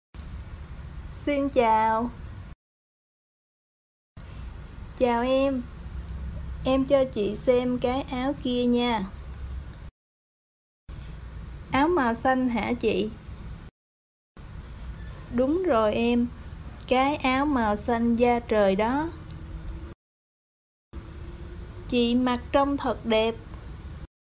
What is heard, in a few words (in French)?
audio dialogue, e-learning achats et faire les courses